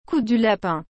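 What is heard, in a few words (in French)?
"coup du lapin", French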